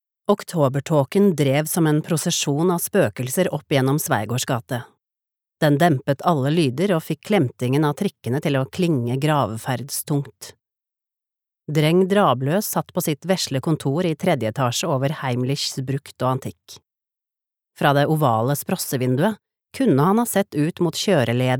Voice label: no lydbok

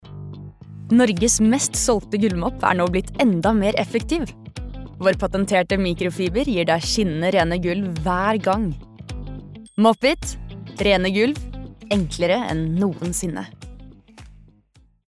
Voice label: no reklame, norwegian, female

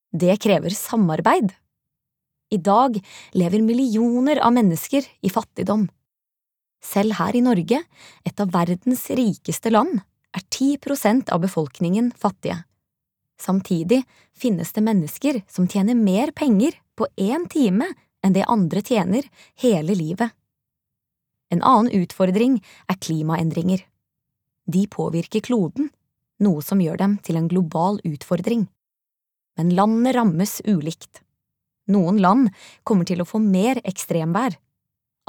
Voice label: fagbok, norwegian, female

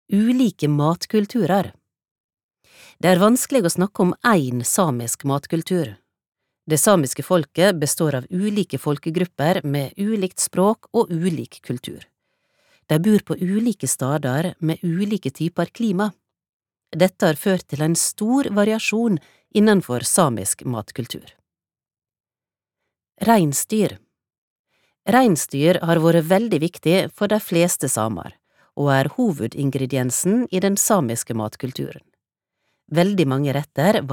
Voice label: fagbok nynorsk, norsk, kvinne

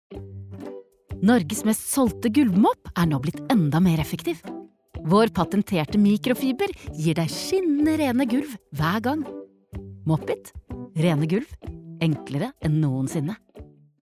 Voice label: no reklame

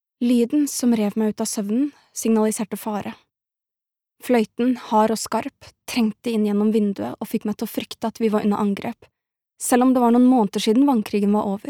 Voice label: no lydbok

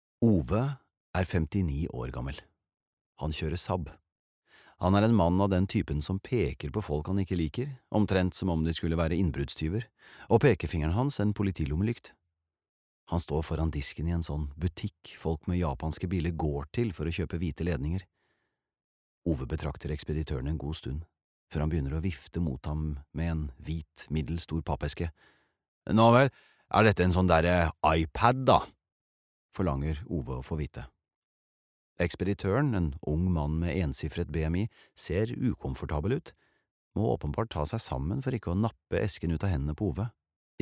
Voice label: no lydbok

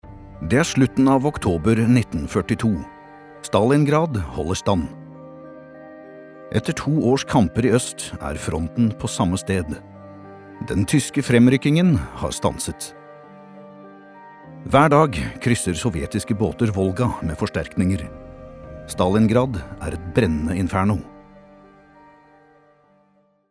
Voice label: no dokumentar

